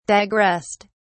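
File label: "digressed", en, British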